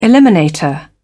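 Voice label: "eliminator", British English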